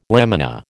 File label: "lamina", American